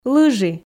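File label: "лыжи", Komi, female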